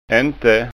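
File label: "ente", Scanian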